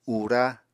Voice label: "ura", Apatani